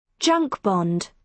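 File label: "junk bond", British English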